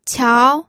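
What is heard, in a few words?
"qiao", Mandarin Chinese, second tone; pinyin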